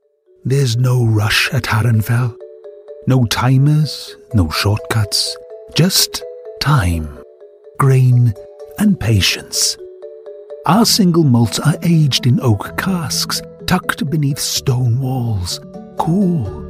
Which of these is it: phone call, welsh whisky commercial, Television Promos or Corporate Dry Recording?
welsh whisky commercial